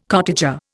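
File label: "cottager", Indian English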